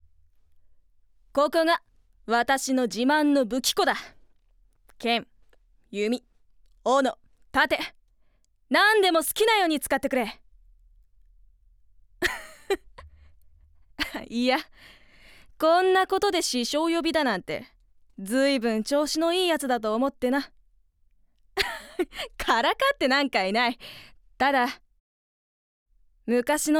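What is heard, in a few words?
voice sample, female warrior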